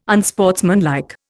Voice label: "unsportsmanlike", Indian

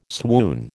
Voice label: "swoon", American

